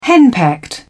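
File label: "henpecked", British English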